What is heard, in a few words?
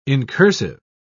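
"incursive", British English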